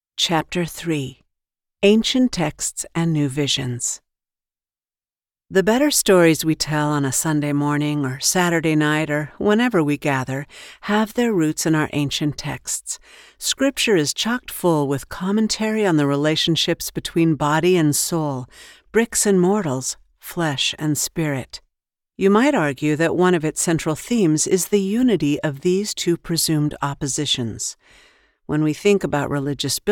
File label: personal memoir, voiceover